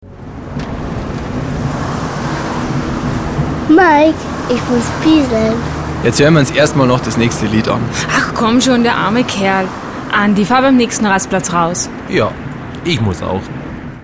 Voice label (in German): sprechszene